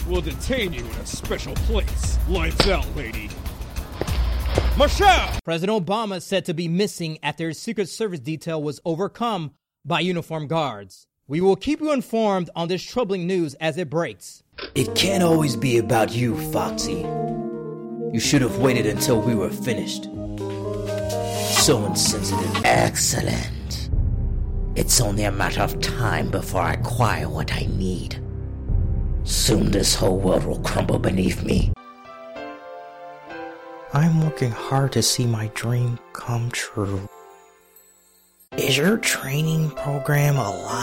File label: Character Demo